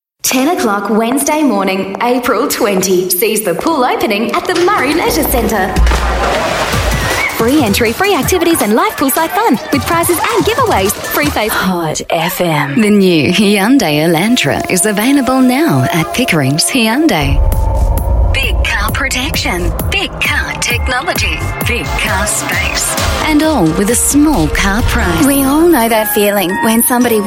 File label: AU English Reel